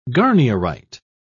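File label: "garnierite", British English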